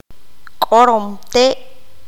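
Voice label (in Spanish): "pájaro carpintero", qanjobal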